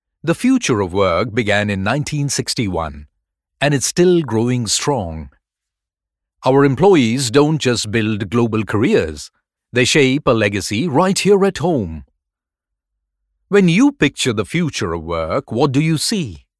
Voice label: NARRATION ENGLISH